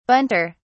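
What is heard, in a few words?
"bunter", British English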